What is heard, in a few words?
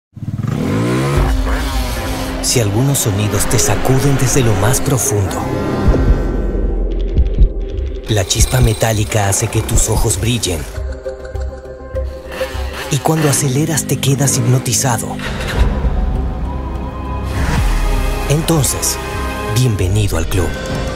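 comercial, Mexican Spanish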